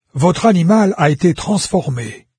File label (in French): pet transform, fr, narrator; Fate Undiscovered Realms